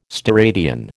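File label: "steradian", American English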